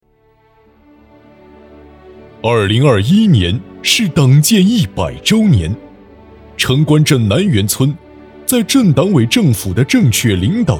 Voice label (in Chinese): 专题 政府 讲述, 男; 百年征程新起点